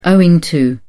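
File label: "owing to", British English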